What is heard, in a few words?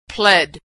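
"pled", American English; verb; Consonant Sound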